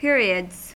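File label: "periods", US English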